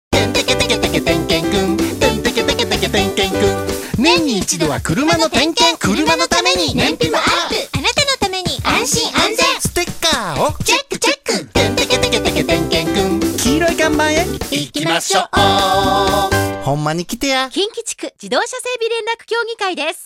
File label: radio cm